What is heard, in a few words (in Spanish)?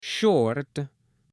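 "SHORT", Spanish, male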